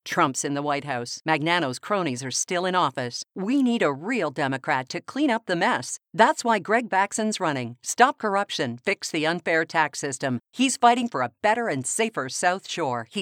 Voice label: English Canadian political ads

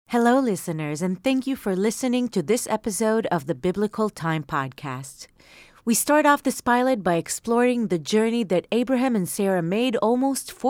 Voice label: hebrew podcasts

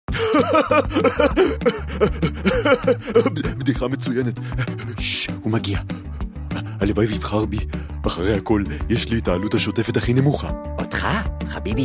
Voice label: hebrew animation

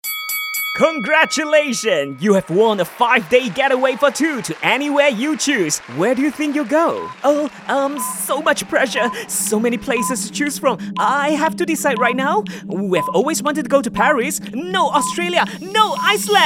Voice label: english promos, voice actors

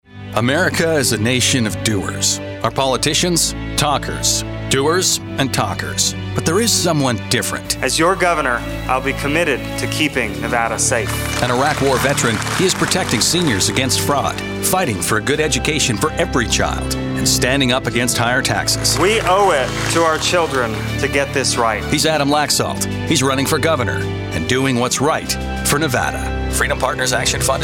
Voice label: english american political ads

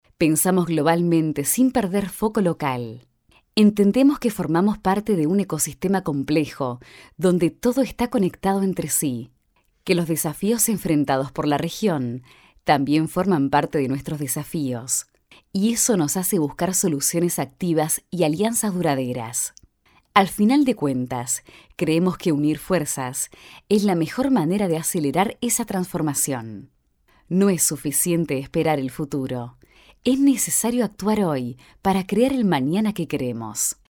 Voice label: Spanish Argentinian corporate

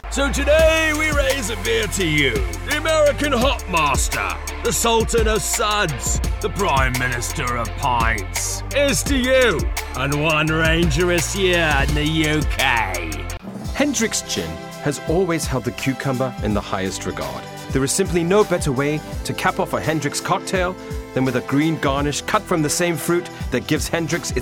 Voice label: english british commercial

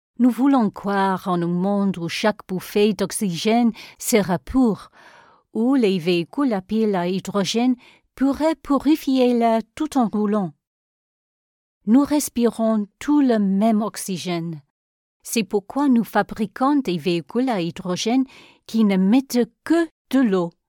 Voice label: french commercial

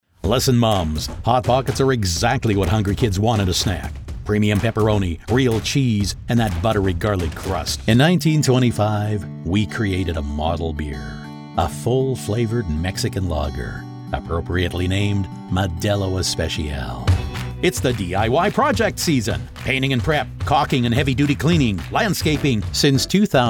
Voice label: english american commercial

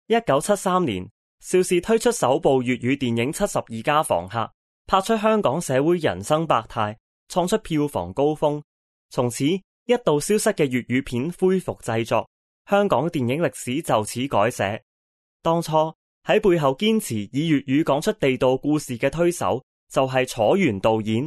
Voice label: chinese cantonese others

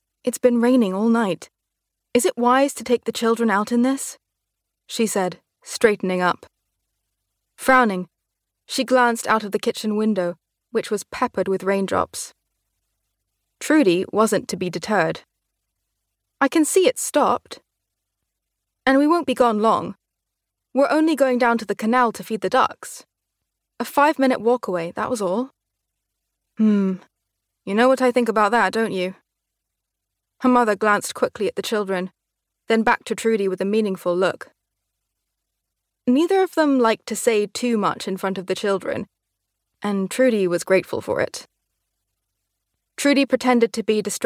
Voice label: english british audiobooks

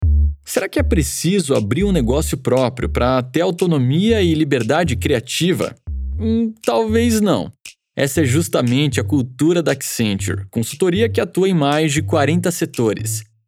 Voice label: portuguese brazilian podcasts

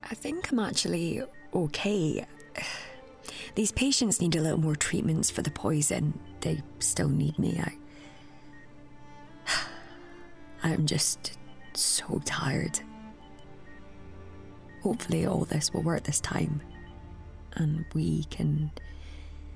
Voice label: english scottish video games

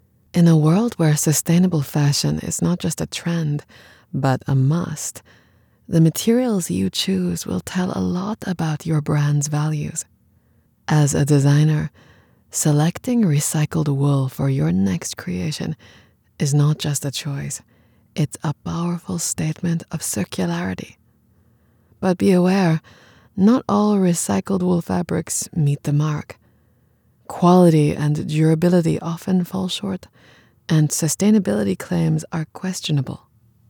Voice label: english international audio guides

